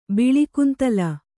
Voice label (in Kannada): "ಬಿಳಿಕುಂತಲ", Kannada